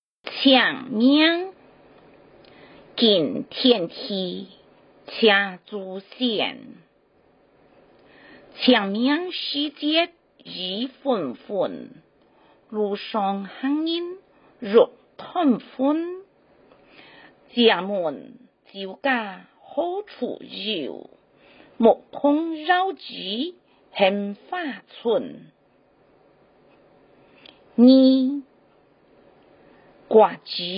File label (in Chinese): 清明節 客語 (海陸腔)